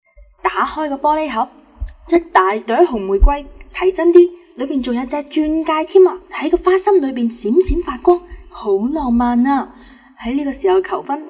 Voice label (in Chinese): 粤语永生花解说稿